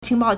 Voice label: "qing2 bao4", Chinese

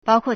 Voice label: "bao1kuo4", Chinese

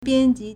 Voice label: "bian1ji2", Chinese

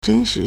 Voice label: "zhen1shi2", Chinese

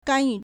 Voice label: "gan1 yu4", Chinese

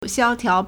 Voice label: "xiao1tiao2", Chinese

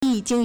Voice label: "yi3 jing1", Chinese

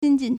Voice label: "xin1jin4", Chinese

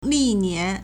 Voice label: "li4nian2", Chinese

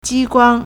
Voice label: "ji1 guang1", Chinese

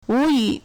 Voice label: "wuyi2", Chinese

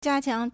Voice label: "jia1 qiang2", Chinese

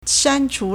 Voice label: "shan1chu2", Chinese

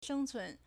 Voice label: "sheng1cun2", Chinese

